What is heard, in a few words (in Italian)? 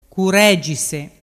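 "curegise", Vernazzese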